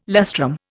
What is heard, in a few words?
"lustrum", Indian